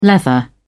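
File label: "leather", English, British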